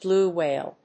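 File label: "blue whale", English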